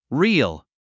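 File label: "reel", English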